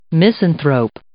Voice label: "misanthrope", English